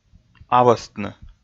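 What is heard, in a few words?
"авӧстны", Komi, male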